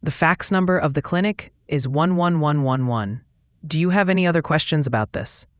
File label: question english